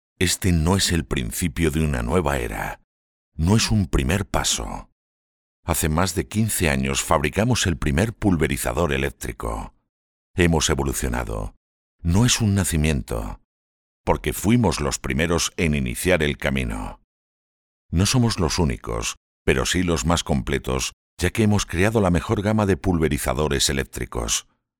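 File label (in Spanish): generico España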